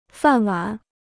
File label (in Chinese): "饭碗", Chinese